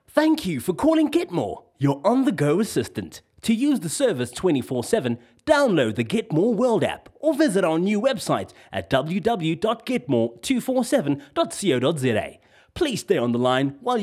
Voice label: IVR; GetMore